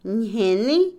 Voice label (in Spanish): Otomí, mujer; enfermedad; INALI